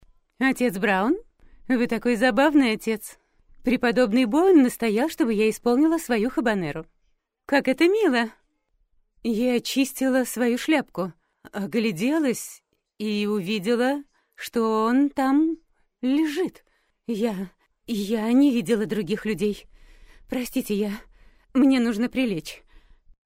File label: russian f character; voice demos